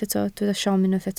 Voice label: "siom", Welsh